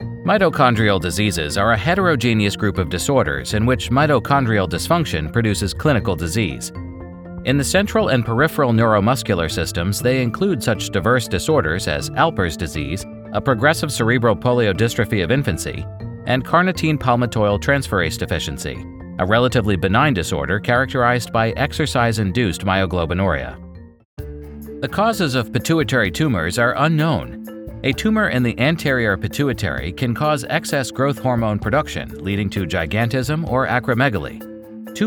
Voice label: medical Narration